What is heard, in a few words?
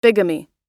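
"bigamy", American English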